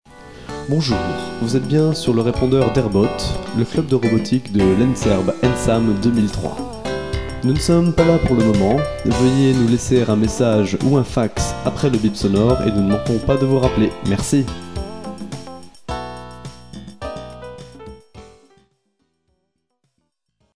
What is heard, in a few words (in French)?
repondeur